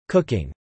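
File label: "cooking" spoken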